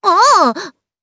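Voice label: Vox Japanese; Cecilia; kingsraid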